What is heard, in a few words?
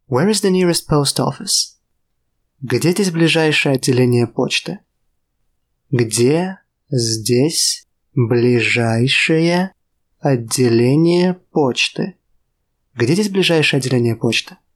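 Russian, Where is the nearest post office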